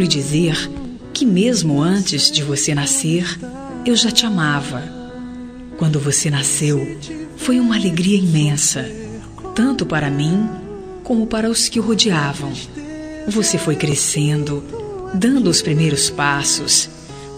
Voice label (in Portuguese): Aniversário de Filho – Voz Feminino; Telemensagens para todas as ocasiões